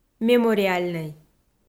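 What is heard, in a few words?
"мемориальнӧй", Komi, female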